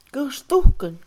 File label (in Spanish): Mixe, Mujer; angustia; Emociones; INALI